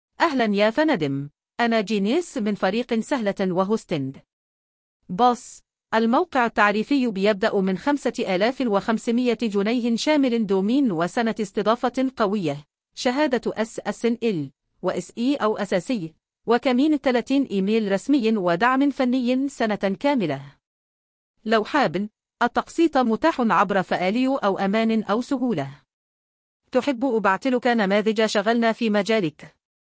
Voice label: voice sample female